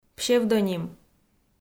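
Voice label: "псевдоним", Komi, female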